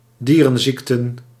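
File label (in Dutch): "dierenziekten", Dutch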